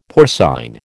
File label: "porcine", American